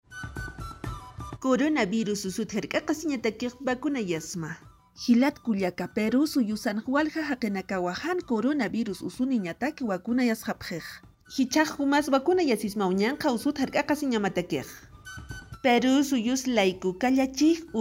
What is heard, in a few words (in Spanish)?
aimara, spot de radio; vacuna contra el coronavirus; vacunate para protegerte del coronavirus